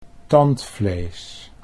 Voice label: "tandvlees", Dutch